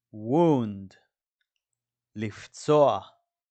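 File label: "wound" English, "לפצוע" Hebrew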